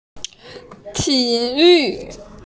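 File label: "ti3 yu4", Mandarin Chinese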